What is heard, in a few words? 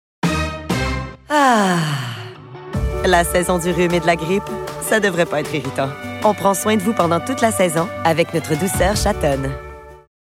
voice acting